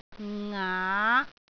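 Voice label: "ngaa5", Cantonese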